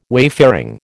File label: "wayfaring", American